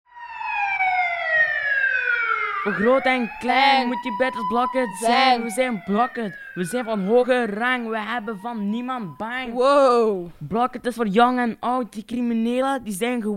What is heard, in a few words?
radiospot kids cafe; blokhut populierenwijk